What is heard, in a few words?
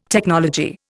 "technology", Indian